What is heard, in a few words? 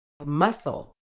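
"muscle", British English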